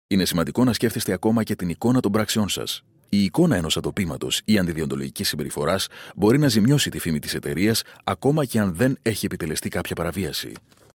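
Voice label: Greek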